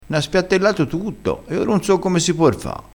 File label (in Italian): "por", crespinese